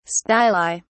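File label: "styli", English, uk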